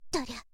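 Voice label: "torya", girl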